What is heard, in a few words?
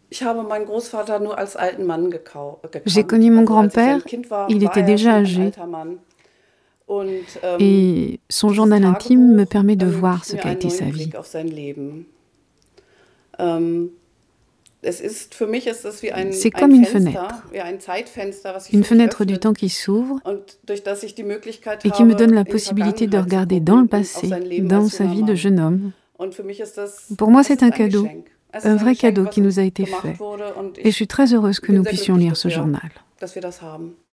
Voice over; Enregistrements dans la cabine speak